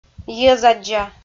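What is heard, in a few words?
"йӧзаддза" Komi, female; audio dict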